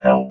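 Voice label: "help", robot voice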